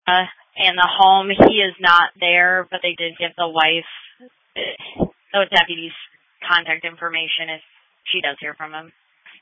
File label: Sheriff Dispatch; sdr